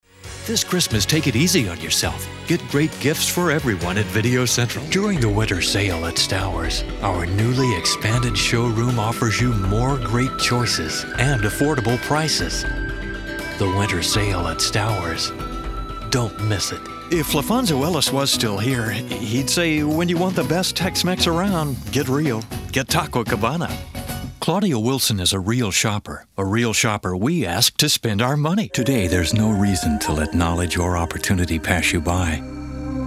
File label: English, english males